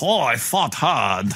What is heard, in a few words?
voice, asian voices